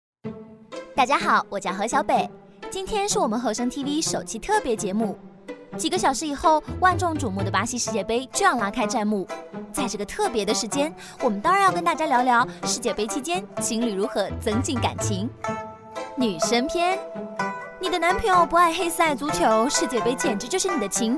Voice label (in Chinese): 女 国 大音 风格：甜美略带台湾腔; 病毒视频-世界杯观影指南